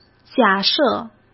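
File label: "假设", Mandarin; chinese flashcards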